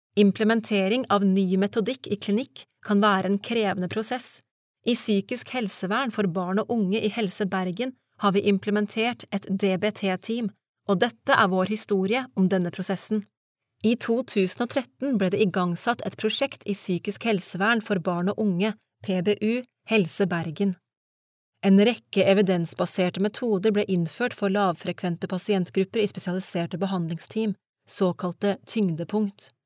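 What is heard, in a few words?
Norwegian Norway female narrative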